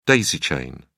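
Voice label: "daisy-chain", British English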